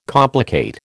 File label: "complicate", American